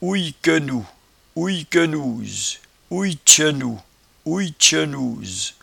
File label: "ouiequenou", patois jura